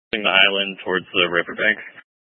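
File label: Incident Tactical 1, Scanner audio recording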